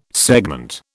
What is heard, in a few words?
"segment", British